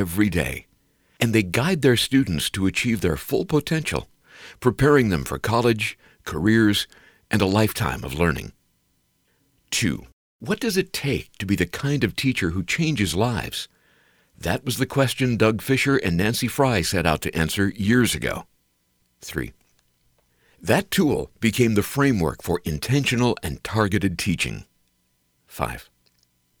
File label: elearn teachers